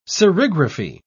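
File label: "serigraphy", British English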